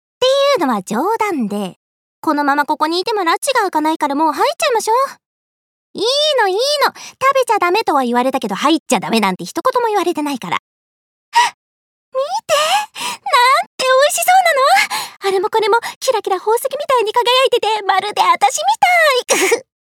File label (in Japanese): 少女シットコム